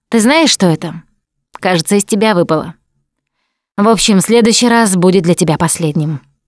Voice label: revive critical friend, Russian; Producer